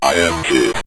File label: im Hit, Synth Voice; Kenney's Sound Pack